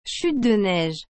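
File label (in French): "chute de neige", French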